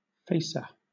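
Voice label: "facer", British English; pronunciations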